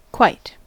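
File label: "quite", American English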